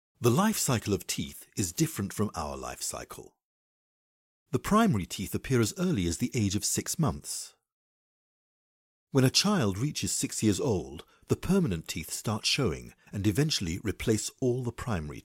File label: english british training e-learning